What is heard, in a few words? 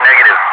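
"negative", English speech, Wingman; DCS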